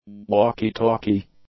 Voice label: "walkie-talkie", English; nouns